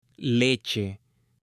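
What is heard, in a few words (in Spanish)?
"LECHE", Spanish; ALIMENTOS; AppLenguaje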